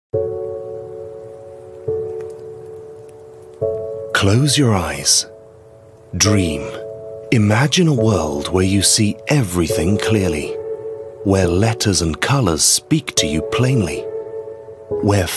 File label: Male 25 35 years Medium